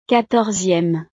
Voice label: "quatorzieme", frances

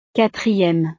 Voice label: "quatrieme", frances

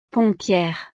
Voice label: "pompiere", frances; profesiones

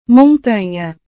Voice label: "montanha", Portugués; montaña

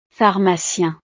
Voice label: "pharmacien", frances; profesiones

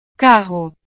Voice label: "carro", Portugués; palabras con RR

